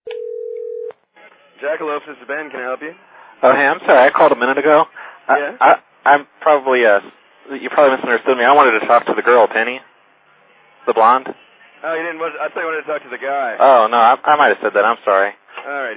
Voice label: jackalope call, Prank Calls; Phone Losers of America Media Pack 2014; Miscellaneous Pranks